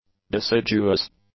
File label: "deciduous", english; adjectives